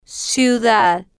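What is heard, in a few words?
"ciudad", Spanish, female, slow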